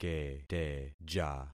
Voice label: "keteja", concat syllables; Affixing experiment